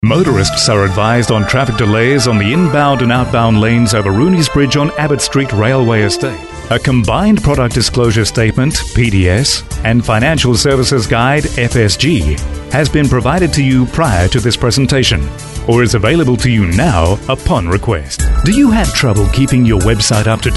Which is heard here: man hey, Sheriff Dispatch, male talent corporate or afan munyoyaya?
male talent corporate